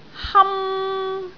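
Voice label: "ham1", Cantonese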